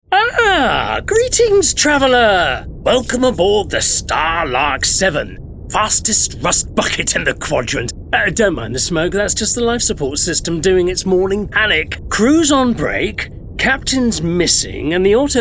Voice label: Gaming Alien Sci Fi